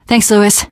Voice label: thanks, teen girl; voice; source engine; left 4 dead 2; survivor